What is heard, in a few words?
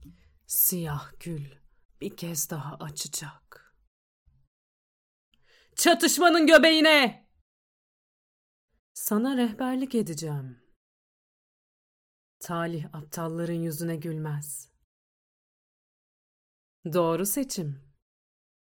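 Turkish, Characters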